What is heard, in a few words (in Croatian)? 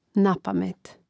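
"napamet", Hrvatski; mreznik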